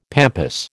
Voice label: "pampas", American